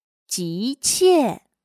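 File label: "急切", Chinese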